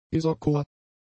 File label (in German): "isochor", german; adjectives